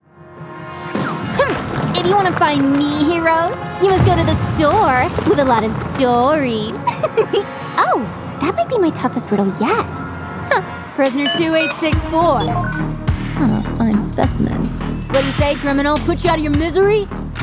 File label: animation